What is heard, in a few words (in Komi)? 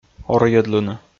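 "орйӧдлыны", Komi, male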